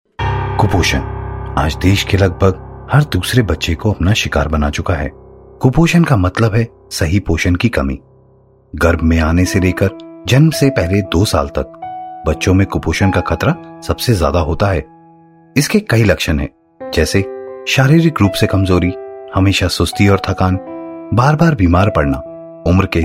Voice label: hindi, male voice